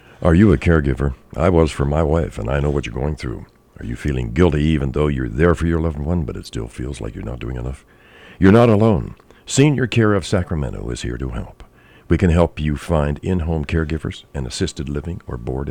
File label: Radio ad audio Male